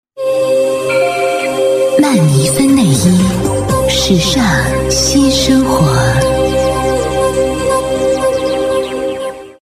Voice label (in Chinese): 国语女声配音，广告 服饰类 内衣 感性品质; 优雅配音; 曼妮芬